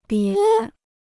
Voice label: "别", Chinese

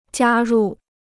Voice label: "加入", Chinese

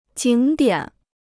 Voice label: "景点", Chinese

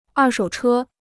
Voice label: "二手车", Chinese

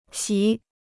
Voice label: "席", Chinese

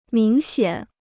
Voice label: "明显", Chinese

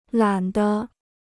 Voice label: "懒得", Chinese; lǎn dé; disinclined to

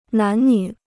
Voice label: "男女", Chinese

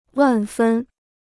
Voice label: "万分", Chinese

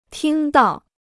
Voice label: "听到", Chinese

